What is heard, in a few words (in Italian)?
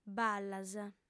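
"ballas", lingua sarda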